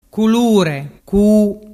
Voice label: "culurecu", dialettu